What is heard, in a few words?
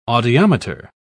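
"audiometer", British English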